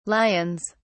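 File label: "lions", British English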